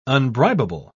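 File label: "unbribable", British English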